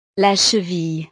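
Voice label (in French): "la cheville", French; El tobillo; partes del cuerpo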